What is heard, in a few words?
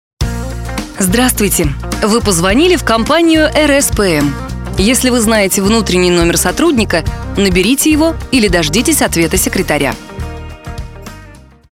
ivr